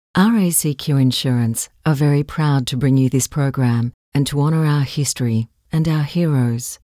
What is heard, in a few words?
Billboard; RACQ